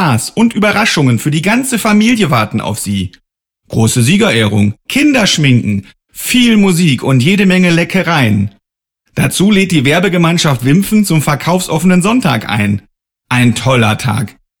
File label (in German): Radio; Erpel